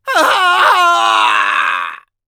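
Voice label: Demoman pain critical death, Spanish; *Grito de muerte*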